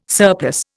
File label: "surplus", Indian